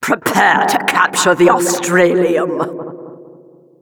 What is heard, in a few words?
Announcer round start